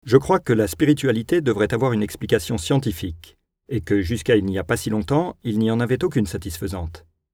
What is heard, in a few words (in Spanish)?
locutor francés